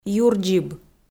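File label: "юрдзиб", Komi, female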